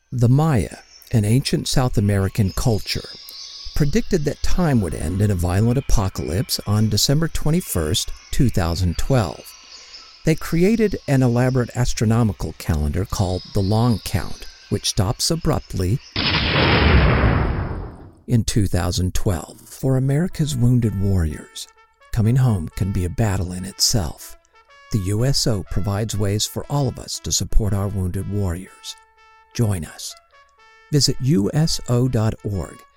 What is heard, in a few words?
USA